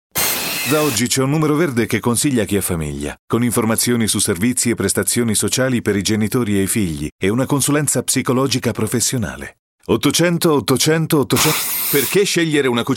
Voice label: ITA advertise compilation; demo